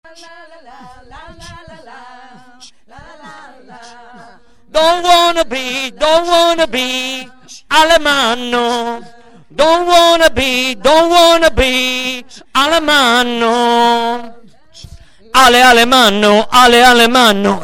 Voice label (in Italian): spot; alemanno